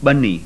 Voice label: implosive bilabial, sindhi